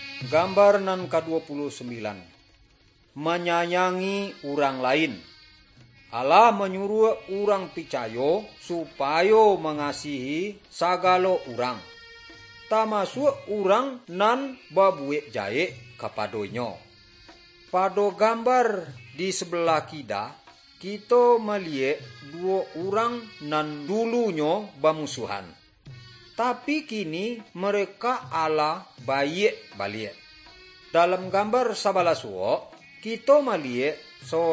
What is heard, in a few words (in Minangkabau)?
good news minangkabau